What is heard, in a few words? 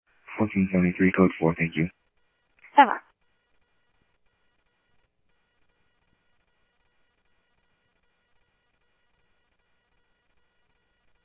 PD Police, scanner audio